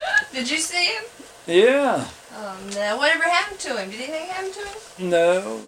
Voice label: Conversation with 52 year old white male and acquaintances West Virginia, american english dialect